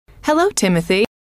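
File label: "hello timothy", female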